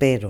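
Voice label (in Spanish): "pero", Spanish, Galicia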